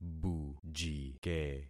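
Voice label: "bujike", concatenated syllables; Affixing experiment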